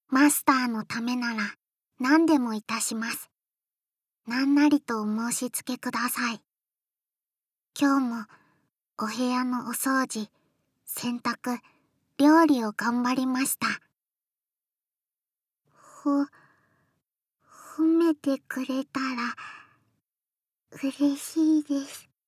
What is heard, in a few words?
Young girl